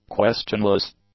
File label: "questionless", English; adjectives